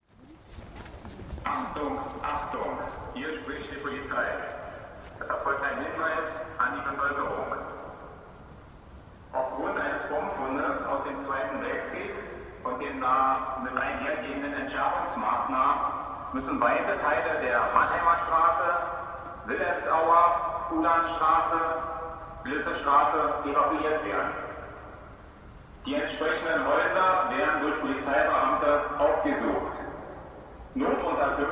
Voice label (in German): durchsage